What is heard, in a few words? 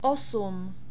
"osm", Czech; numbers